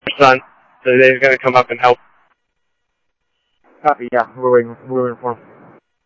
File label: Mesa Simulcast, MESA PD, scanner audio